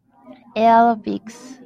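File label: "aerobics", Japanese, female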